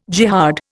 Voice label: "jihad", Indian